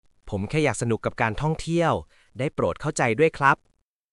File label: Thai, basic thai phrases